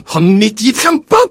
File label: engineer cheers